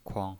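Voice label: "kuang", pinyin, Mandarin Chinese, neutral tone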